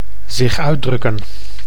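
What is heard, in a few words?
"zich uitdrukken", Dutch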